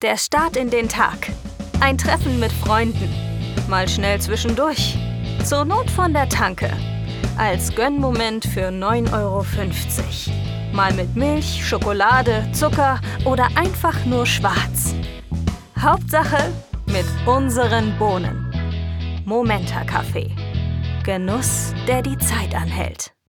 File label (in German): Werbung Kaffee